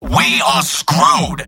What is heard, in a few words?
scout negative vocalization; tf2; mvm